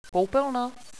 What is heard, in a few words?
bathroom, radio bot